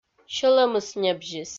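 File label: "сьӧлӧмыс небзис", Komi, female; kpv audio dict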